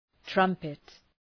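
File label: "trumpet", English; {‘trʌmpıt}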